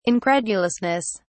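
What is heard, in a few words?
"incredulousness", English, British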